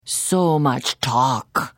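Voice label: Hello, Khajiit female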